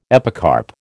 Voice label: "epicarp", American